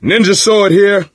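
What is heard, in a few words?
melee weapons, voice, male; Left 4 Dead 2; coach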